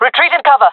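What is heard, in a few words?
request fallback, female voice; player vo jungle fem; Counter Strike Model Pack; CS:GO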